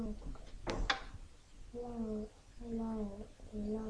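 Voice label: "low", spoken word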